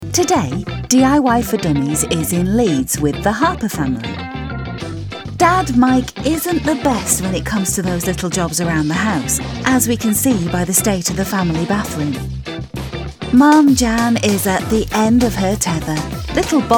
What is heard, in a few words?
Documentary